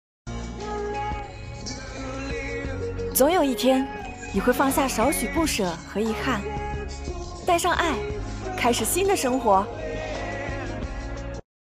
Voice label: 积极向上青春女声

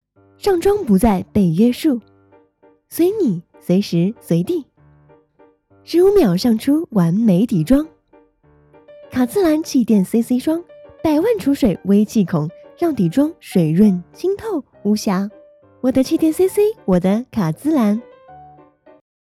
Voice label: 化妆品 广告 甜美 清新

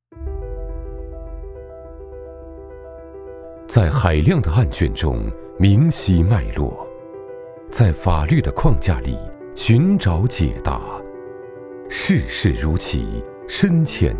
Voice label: 高端 质感; 探求未知

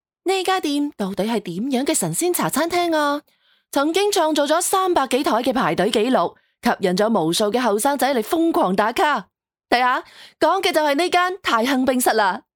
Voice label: 粤语信息流

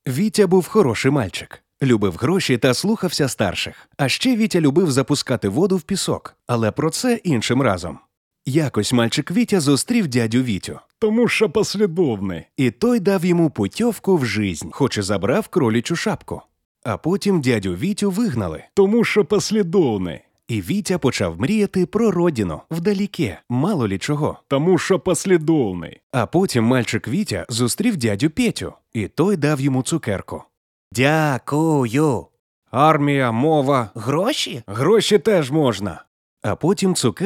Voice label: 乌克兰语男干音自然讲述

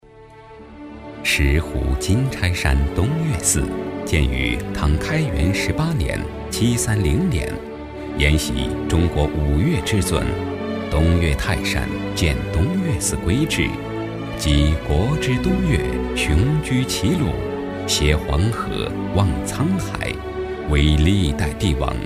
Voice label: 纪录片样音

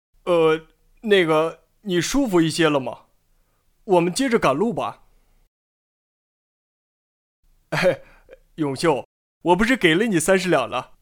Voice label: 男青年

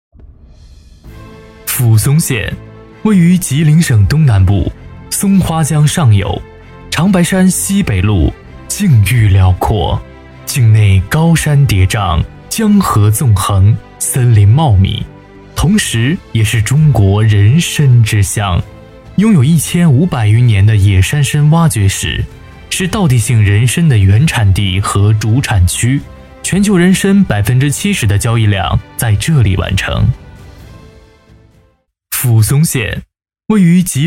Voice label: 园区专题 沉稳质感; 抚松县

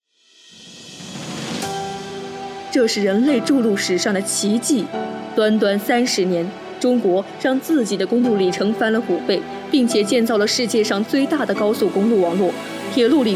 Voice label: 宣传

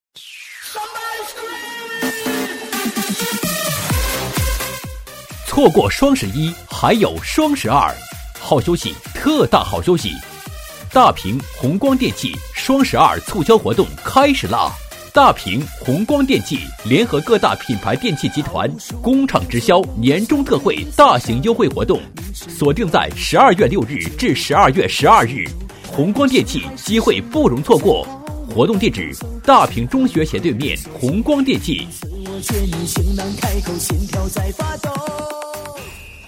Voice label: 电器双十二促销录音

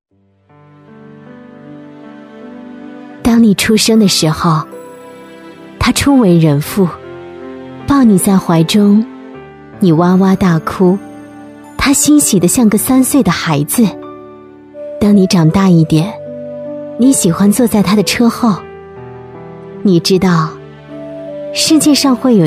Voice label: 旁白温情感人; 父亲

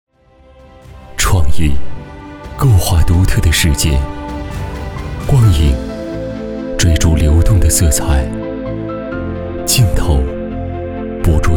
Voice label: 深沉内敛 宣传影像

